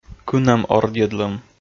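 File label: "кынӧм ордйӧдлӧм", Komi, male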